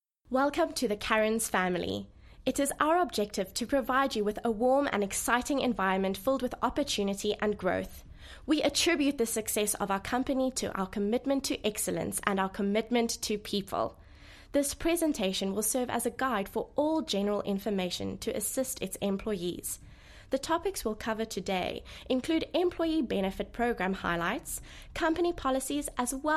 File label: English Corporate